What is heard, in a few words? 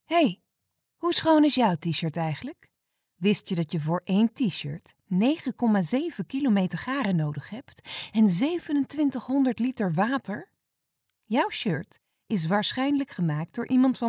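Dutch, Netherlands, female, voice over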